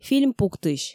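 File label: "фильм пуктысь" Komi, female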